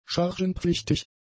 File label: "chargenpflichtig", German; adjectives